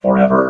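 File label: "forever", robot voice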